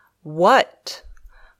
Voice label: "What", English

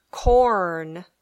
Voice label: "corn", English

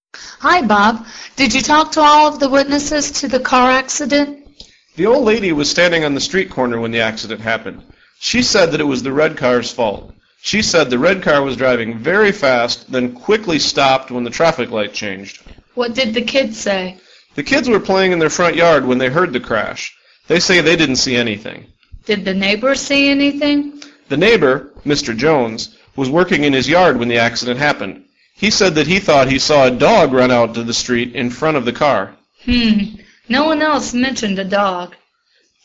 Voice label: Dialog

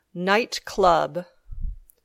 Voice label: "nightclub", English

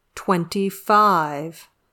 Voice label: "twenty-five", English

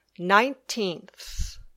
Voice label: "nineteenth", English

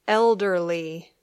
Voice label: "elderly", English

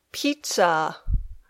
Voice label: "pizza", English

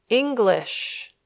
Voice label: "English", English

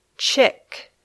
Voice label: "chick", English

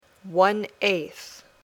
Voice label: "one eighth", English

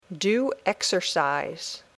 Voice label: "do exercise", English

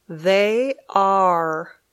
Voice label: "They are", English

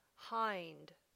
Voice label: "hind", English

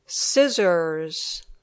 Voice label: "scissors", English

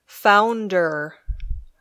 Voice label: "founder", English

